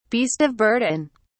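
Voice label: "beast of burden", British English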